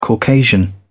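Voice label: "caucasian", British English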